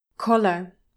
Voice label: "collar", english pronunciation

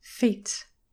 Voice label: "feet", english pronunciation

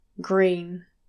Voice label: "green", english pronunciation

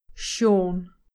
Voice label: "Shawn", English

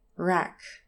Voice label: "rack", English pronunciation

